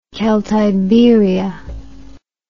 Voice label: "celtiberia", English

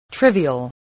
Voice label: "trivial", voice

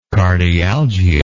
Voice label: "cardialgia", English

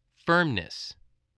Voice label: "firmness", spelling

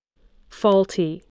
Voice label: "faulty", spelling

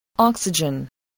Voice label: "oxygen", English voice

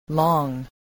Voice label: "long", spelling

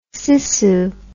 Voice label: "sissoo", words

